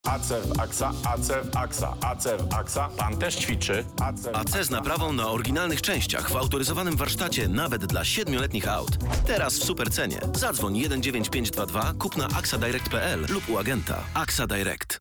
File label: Male VO Commercial